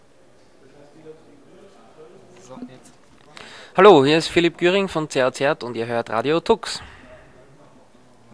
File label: promo; cacert